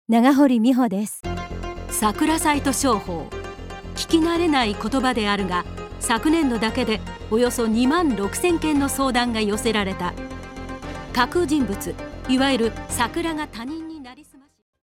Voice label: female news